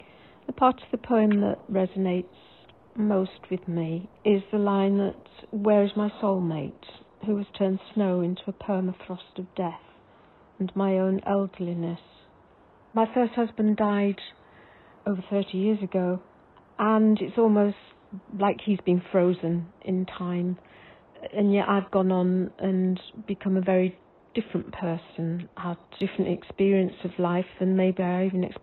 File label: audio voiceover